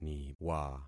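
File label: "niwa", concatenated syllables; Affixing experiment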